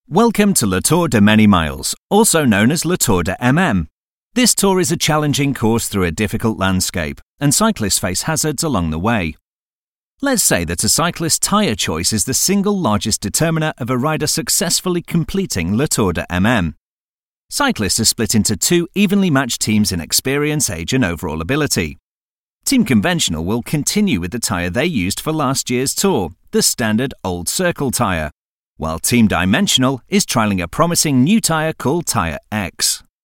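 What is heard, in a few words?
British English elearning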